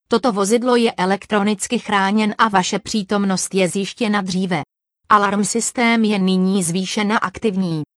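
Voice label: Czech, camper alarm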